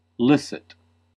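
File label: "licit", American English; pronunciations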